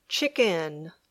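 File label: "chicken", English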